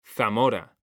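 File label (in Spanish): "Zamora", Spanish, male; mekanta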